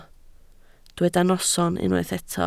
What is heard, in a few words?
"noson", Welsh